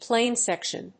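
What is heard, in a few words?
"plane section", English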